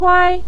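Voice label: "kuai", Mandarin Chinese, neutral tone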